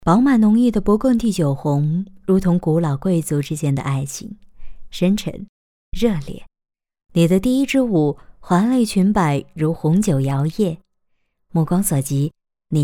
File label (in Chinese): 广告干音